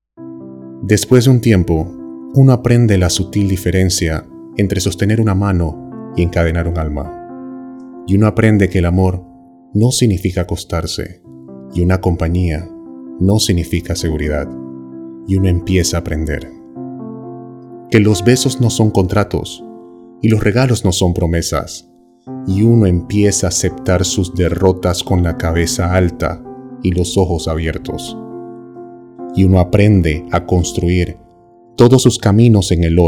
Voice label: narrativa

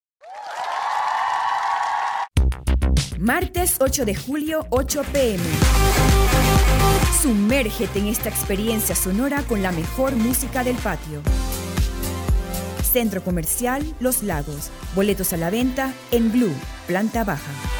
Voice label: Voces Femeninas; demo intro

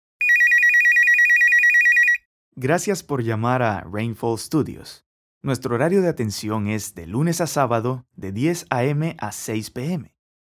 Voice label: contestadora esp

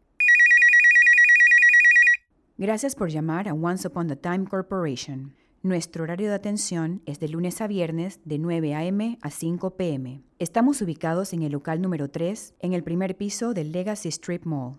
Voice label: contesta español

